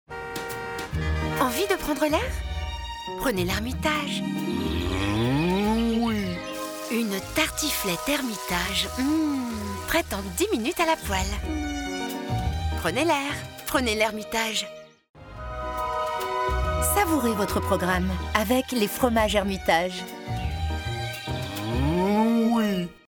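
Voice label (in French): Pub Tv Gourmande douce light; LEmitage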